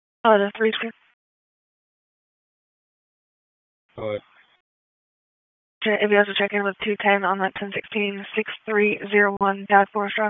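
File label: Raleigh Police Northeast District Dispatch; p25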